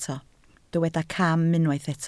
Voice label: "cam", Welsh

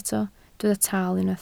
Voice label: "taal", Welsh

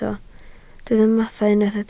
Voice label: "mathau", Welsh

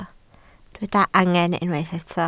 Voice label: "angen", Welsh

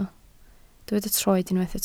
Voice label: "troed", Welsh

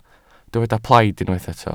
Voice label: "plaid", Welsh

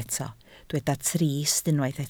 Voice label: "trist", Welsh

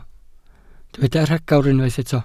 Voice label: "rhagor", Welsh

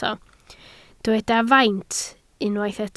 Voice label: "faint", Welsh

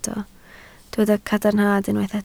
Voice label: "cadarnhad", Welsh

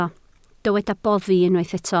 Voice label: "boddi", Welsh

